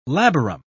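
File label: "labarum", British English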